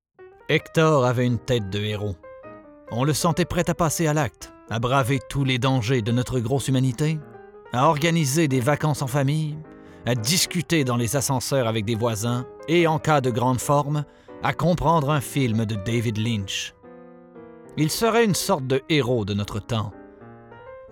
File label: Narration